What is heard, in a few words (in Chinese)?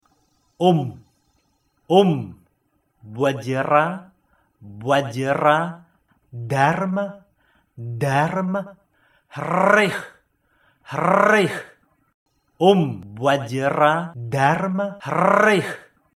觀自在菩薩真言(教學檔); 觀世音菩薩系列 Avalokiteśvara Series